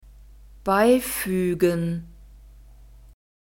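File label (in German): "beifügen", German; pronunciation introduction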